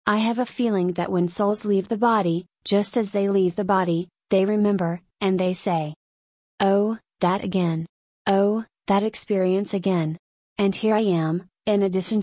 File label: substitute voice rate slow pitch medium; alice; karen